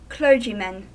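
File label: "clergymen", US English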